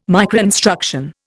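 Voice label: "microinstruction", English, Indian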